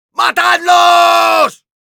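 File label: battlecry, Spanish; Demoman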